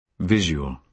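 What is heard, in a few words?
"visual", British English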